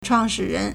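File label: "chuàngshǐrén", Chinese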